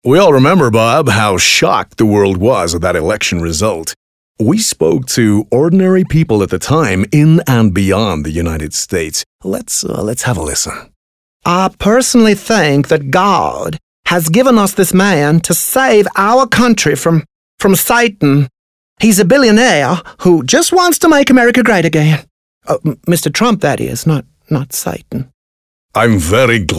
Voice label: Accent Compilation